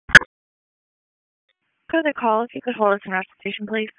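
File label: EMS DISP, radio; p25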